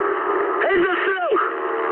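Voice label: English bailout mood high; speech; War Thunder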